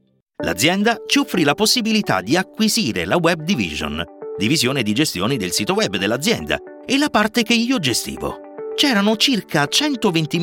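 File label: Italian, eLearning; GoLocalise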